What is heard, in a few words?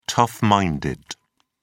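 "tough-minded", English, British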